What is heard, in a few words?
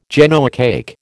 "Genoa cake", American